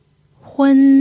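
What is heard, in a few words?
"hun" first tone, pinyin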